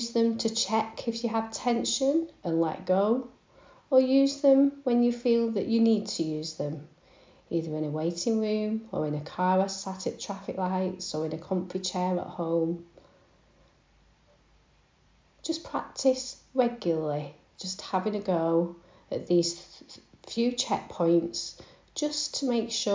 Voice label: Quick Relaxation; Pain Management Programme